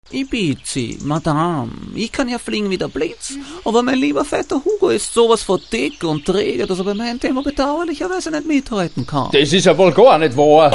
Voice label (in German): Hoerspieltrailer